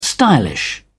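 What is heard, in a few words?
"stylish", English, uk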